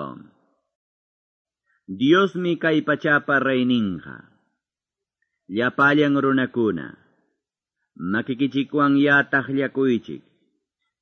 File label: salmos, quechua ayacucho